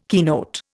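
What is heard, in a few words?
"keynote", Indian English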